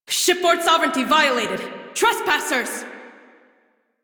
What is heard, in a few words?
Crew Enemy Spot Female en; Railjack Crew; Cephalon Suda